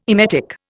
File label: "emetic", Indian